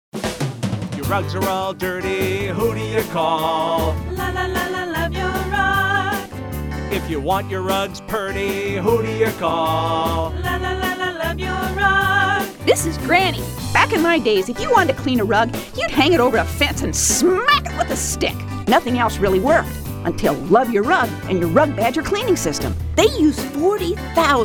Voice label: radio commercial